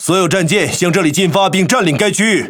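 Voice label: Speech Quick Commands Enemy Point, Chinese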